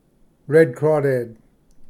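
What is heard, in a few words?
"red crawdad", English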